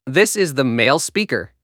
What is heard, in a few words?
Male